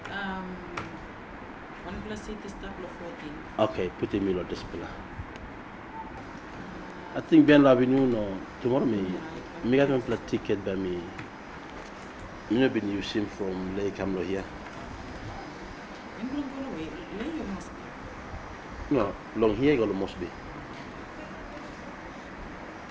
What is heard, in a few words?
Tok Pisin